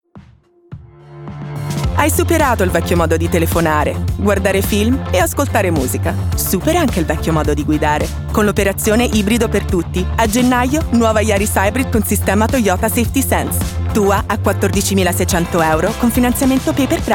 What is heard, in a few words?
Italian commercial VO reel; European, Italian